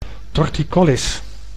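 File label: "torticollis", Dutch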